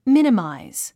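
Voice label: "minimize", English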